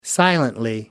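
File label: "silently", British English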